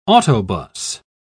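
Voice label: "autobus", British English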